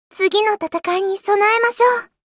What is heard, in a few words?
Voice victory